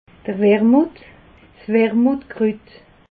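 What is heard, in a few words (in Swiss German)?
"wermuth", Alsatian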